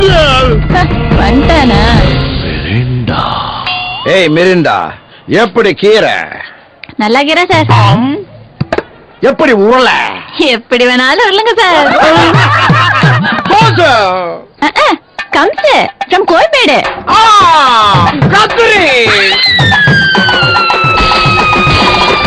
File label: Tamil Comedy